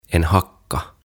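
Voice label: "En hacka", Swedish; Kuokka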